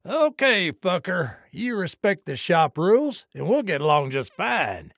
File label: trader male dislike greeting